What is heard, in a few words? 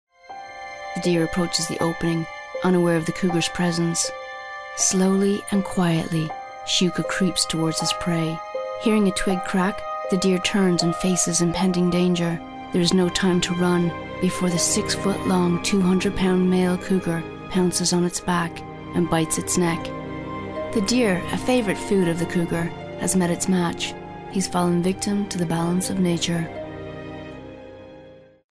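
Documentary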